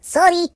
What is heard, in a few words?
Oops, Russian; hedgewars